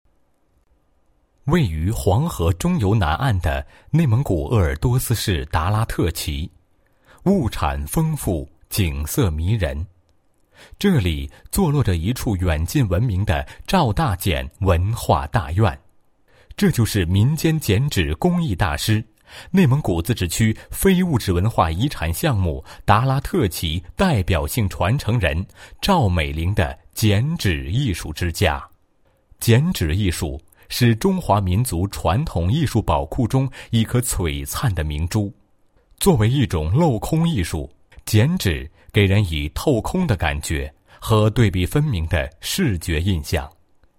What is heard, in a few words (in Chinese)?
男 文化; 非物质文化遗产剪纸艺术